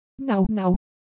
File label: Semai Sound; ideophones